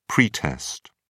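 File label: "pretest", British English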